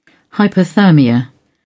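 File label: "hypothermia", British English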